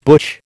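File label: "butch", American English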